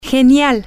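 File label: great, spanish, female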